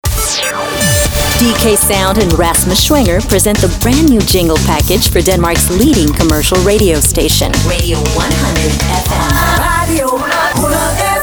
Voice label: Imaging

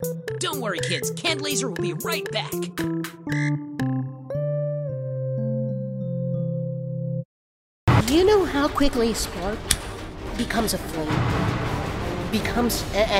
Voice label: Character

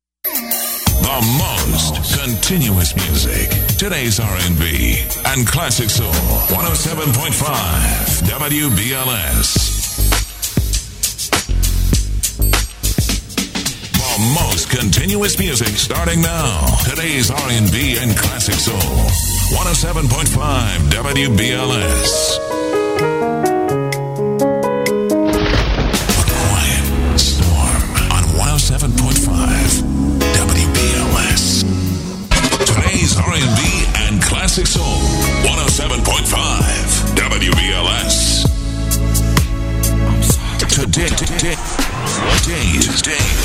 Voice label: urban ac

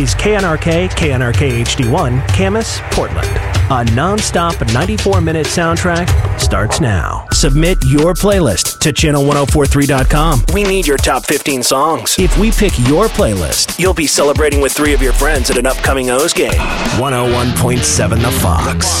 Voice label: Voice ROCK ALT

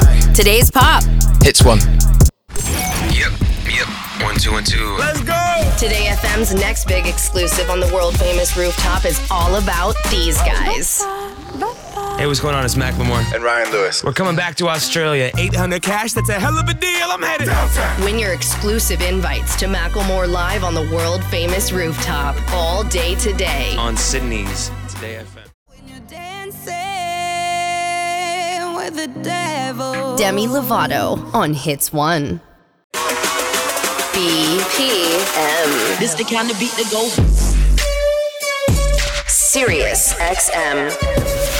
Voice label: Radio Imaging

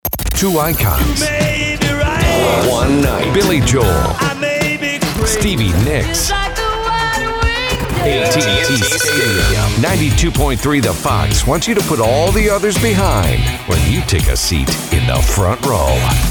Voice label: Radio Imaging Voice Over Classic Rock Hits

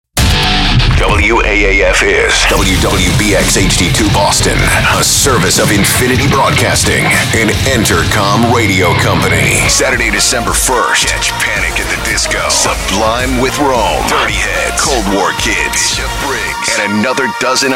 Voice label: imaging mashup

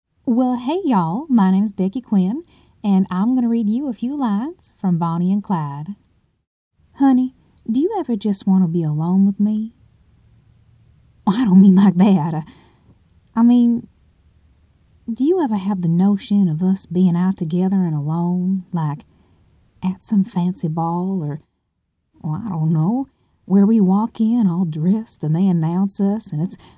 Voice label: Texan VO

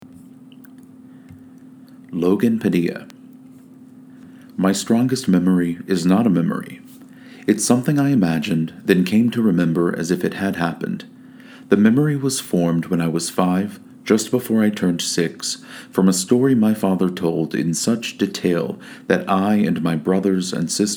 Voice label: Audiobook

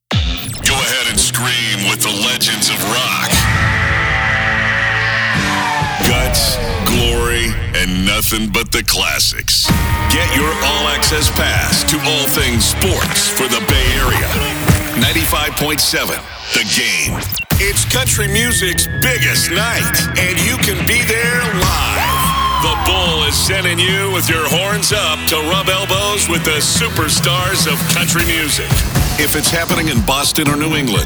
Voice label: Radio Imaging

